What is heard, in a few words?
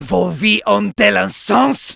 Medic jeers, fr